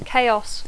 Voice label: "chaos", English